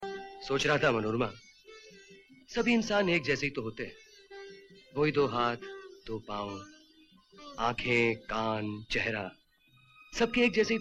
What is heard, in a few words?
Dialogue Ringtone